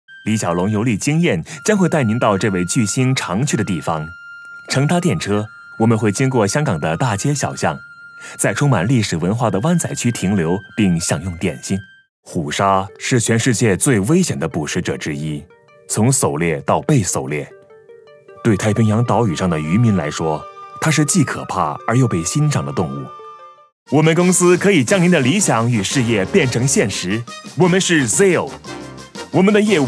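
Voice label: Mandarin, narration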